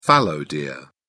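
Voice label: "fallow deer", English, British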